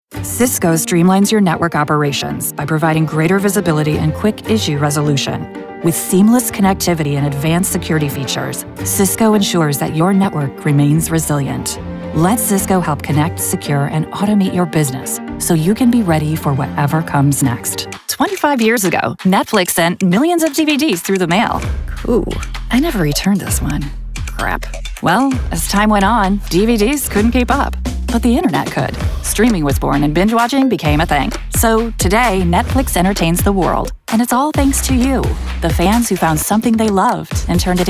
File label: corporate; SF/LA-based Voice Actor